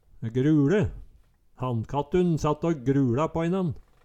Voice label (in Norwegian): "gruLe", Numedalsmål